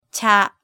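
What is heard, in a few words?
"cha", Japanese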